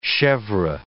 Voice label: "chevre", English

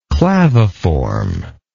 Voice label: "claviform", English

